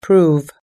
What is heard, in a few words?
prove, us english